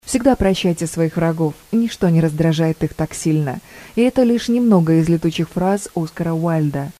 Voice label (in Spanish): locutora rusa